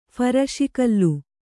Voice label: "ಫರಸುಗಲ್ಲು", Kannada

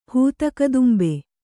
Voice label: "ಹೂತಕದುಂಬೆ", Kannada

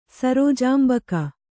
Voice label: "ಸರೋಜಾಂಬಕ", Kannada

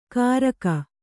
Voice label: "ಕಾರಕ", Kannada

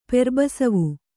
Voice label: "ಪೆರ್ಬಸವು", Kannada

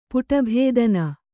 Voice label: "ಪುಟಭೇದನ", Kannada; puṭa bhēdana; noun

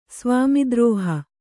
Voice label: "ಸ್ವಾಮಿದ್ರೋಹ", Kannada

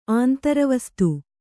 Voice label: "ಆಂತರವಸ್ತು", Kannada

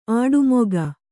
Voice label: "ಆಡುಮೊಗ", Kannada

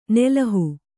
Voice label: "ನೆಲುಹು", kannada

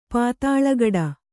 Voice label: "ಪಾತಾಲಗಡ", Kannada

